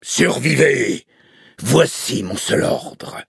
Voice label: upgrade, fr; Cookie Run

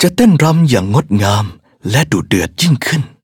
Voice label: level up, th; Cookie Run

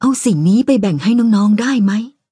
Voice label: level up, th; Cookie Run

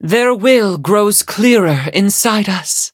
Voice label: level up, en; Cookie Run

